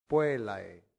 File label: "puellae", latin; santillana